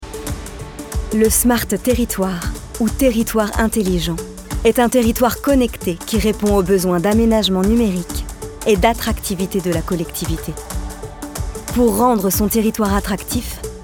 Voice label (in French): VOIX OFF CORPORATE